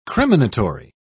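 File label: "criminatory", British English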